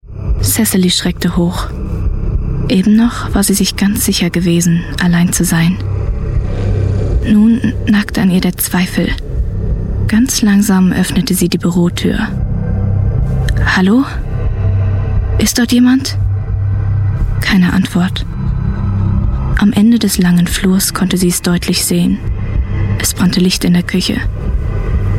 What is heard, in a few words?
german voice over female audiobook